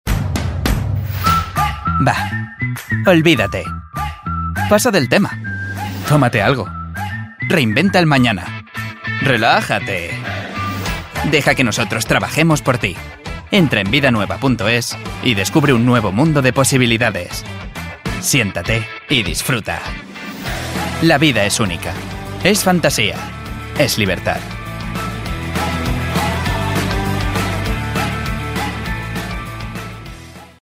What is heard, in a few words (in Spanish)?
Masculino